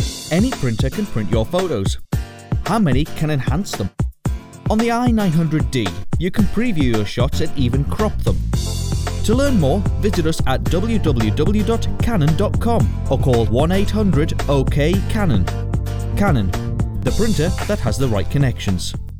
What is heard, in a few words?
Commercial; CanonAD